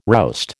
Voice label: "roust", American

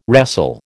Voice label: "wrestle", American

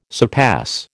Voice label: "surpass", American

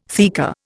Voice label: "theca", Indian

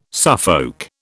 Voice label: "Suffolk", British

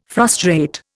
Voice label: "frustrate", Indian

